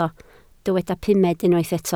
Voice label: "pumed", Welsh

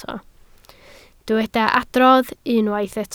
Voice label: "adrodd", Welsh

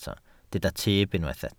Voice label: "tyb", Welsh

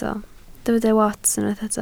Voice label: "wats", Welsh

